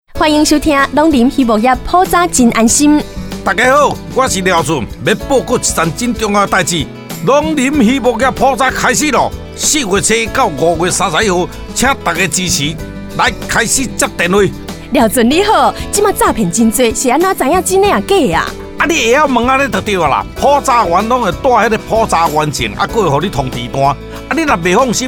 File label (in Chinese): 閩南語版; Call in 篇(60秒); 農林漁牧業普查